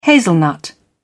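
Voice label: "hazelnut", British English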